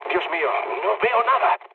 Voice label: searchlite, mood low, speech; War Thunder